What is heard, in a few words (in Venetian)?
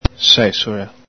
"sesola", Veneto